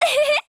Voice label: MOOD JP; Type82S